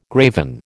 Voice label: "graven", American

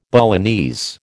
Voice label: "Balinese", American

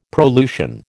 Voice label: "prolusion", American

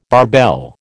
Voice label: barbell, American

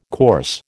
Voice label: "course", American

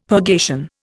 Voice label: "purgation", Indian; Dictionary

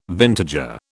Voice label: "vintager", British

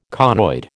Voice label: "conoid", American

